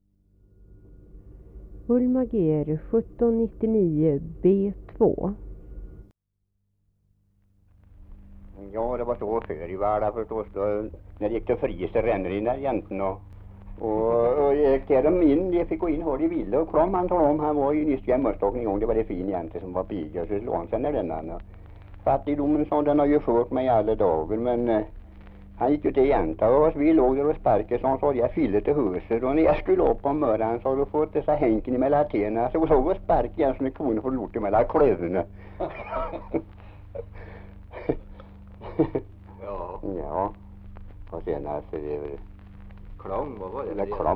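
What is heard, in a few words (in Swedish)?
Järnskog Värmland, dialekter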